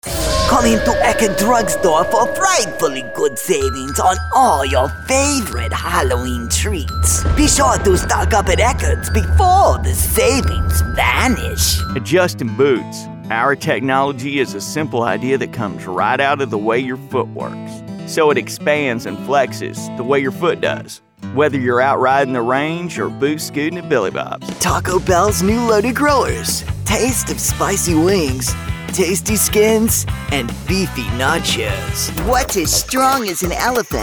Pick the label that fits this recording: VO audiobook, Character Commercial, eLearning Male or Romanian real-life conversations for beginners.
Character Commercial